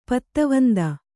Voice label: "ಪತ್ತವಂದ", Kannada

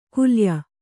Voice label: "ಕುಲಾಯ", kannada

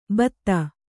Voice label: "ಬತ್ತ", Kannada

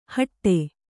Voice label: "ಹಾಡಿ", Kannada

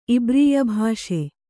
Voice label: "ಇಬ್ರೀಯಭಾಷೆ", Kannada

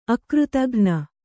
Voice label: "ಅಕೃತಘ್ನೆ", Kannada

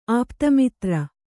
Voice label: "ಆಪ್ತಮಿತ್ರ", Kannada

